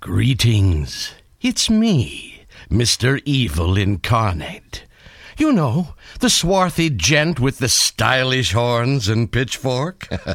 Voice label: character